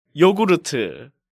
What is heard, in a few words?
"Yogurt", Korean